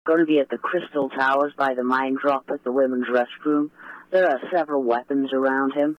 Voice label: radio traffic weapons